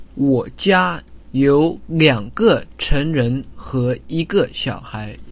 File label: Mandarin sample; Zh dialect